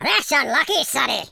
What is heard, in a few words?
"missed", Scotsman; speech